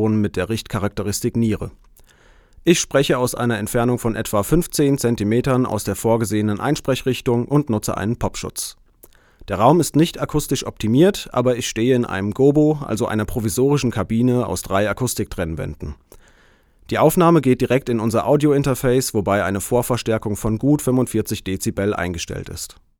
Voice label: tascam tm-180 sprache

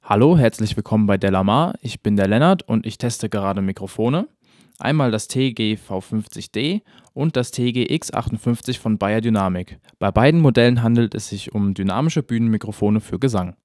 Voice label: lowcut 90hz; beyerdynamic tg-v50d; tg-50d

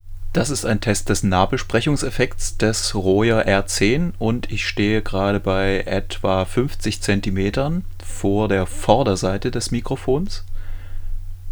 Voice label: Royer R-10 Nahbesprechung normalisiert

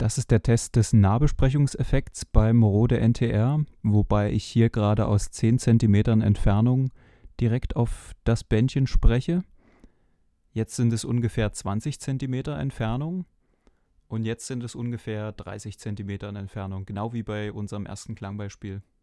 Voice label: Nahbesprechung; rode ntr

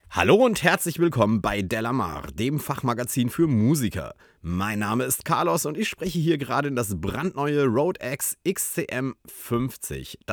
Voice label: studio; rode x xcm 50

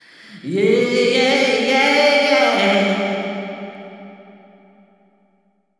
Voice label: vox viel hall; abmischen tutorial

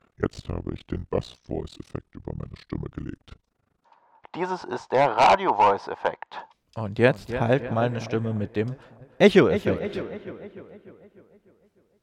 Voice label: mit bass voice radio voice und echo effekt; yamaha zg01

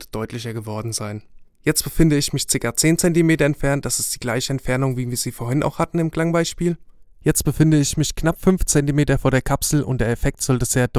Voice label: vocal Nahbesprechung

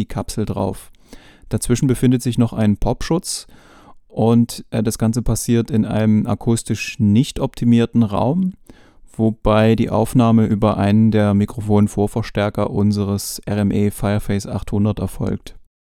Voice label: akg c314 niere stimme

